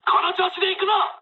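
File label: player killed enemy mood high, speech japanese; War Thunder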